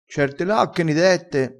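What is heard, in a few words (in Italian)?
"lacca", vocabolario dialettale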